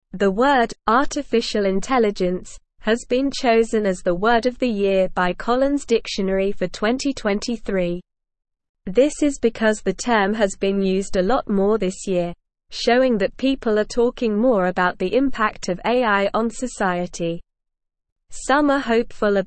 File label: Upper Intermediate SLOW Reading, English; AI Named Collins Dictionary Word of the Year 2023; English Newsroom